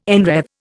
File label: "enwreathe", Indian